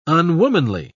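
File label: "unwomanly", English, British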